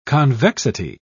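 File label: "convexity", British English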